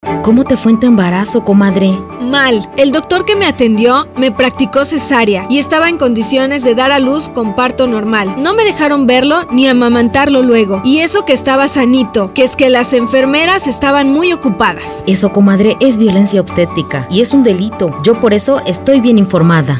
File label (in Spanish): Español; Violencia Obstetrica